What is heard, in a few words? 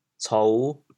"cou5", chaoyang